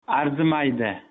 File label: youre welcome, uzbek